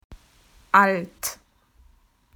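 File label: "old", Australian English; PictureDictionary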